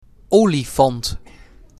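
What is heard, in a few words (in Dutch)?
"Olifant", Dutch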